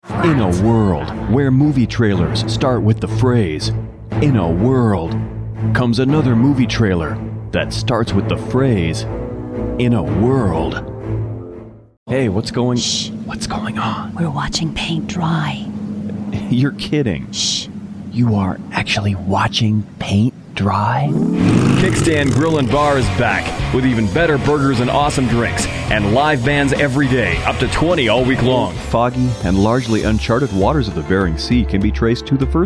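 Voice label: US, voice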